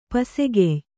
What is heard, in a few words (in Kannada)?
"ಪಸೆಗೆಯ್", Kannada